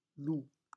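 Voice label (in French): "loup", French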